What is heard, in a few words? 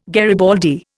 "garibaldi", Indian